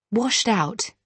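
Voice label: "washed out", British English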